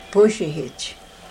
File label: "pusihich", karuk